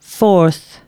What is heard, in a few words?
announcements female